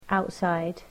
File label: "outside", English